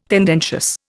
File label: "tendentious", Indian